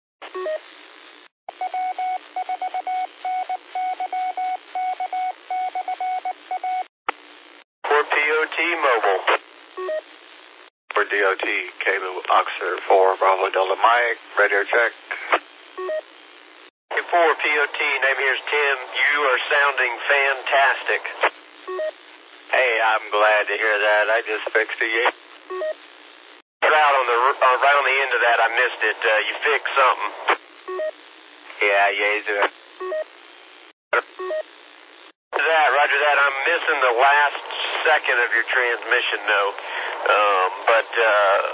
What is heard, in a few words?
qso rec simplex logic